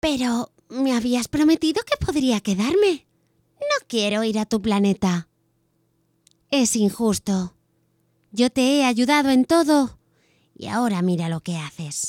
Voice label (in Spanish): voz dibujos niño, Valencian voice over; Valencian child voice talent